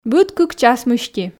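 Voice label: "быд кык час мысти", Komi, female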